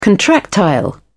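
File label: "contractile", British English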